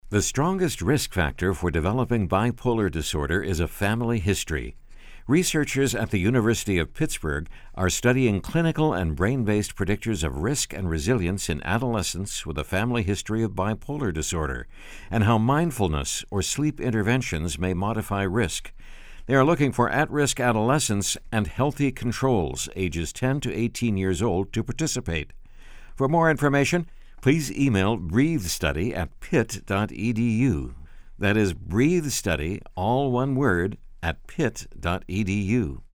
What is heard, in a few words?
radio ad; BEST and BREATHE